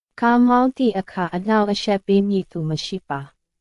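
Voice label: Myanmar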